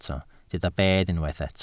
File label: "bedd", Welsh